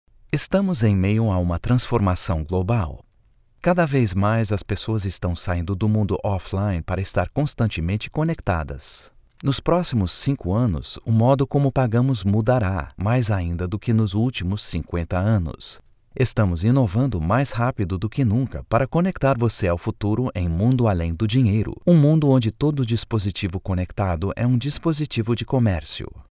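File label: Brazilian Portuguese, male, voice over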